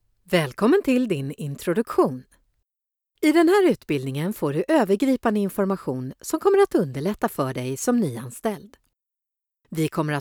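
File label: Swedish, female, e-learning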